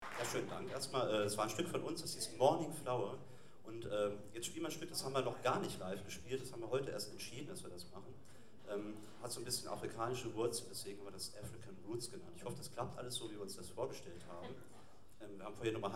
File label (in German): Ansage; Jazz-Club Trier e.V. (JCT); 13. Jazzgipfel (Tufa, Trier)